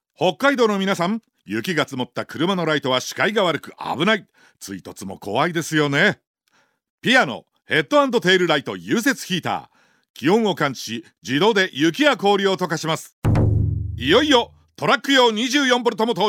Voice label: Radio CM; PIAA